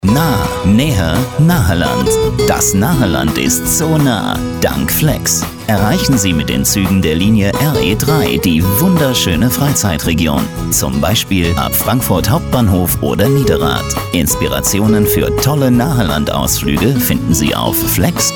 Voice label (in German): Radiospot